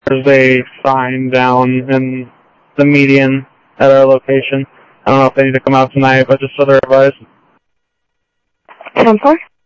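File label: Sheriff East County, scanner audio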